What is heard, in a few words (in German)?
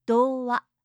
"dowa", japanische maedchennamen